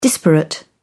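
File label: "disparate", English, British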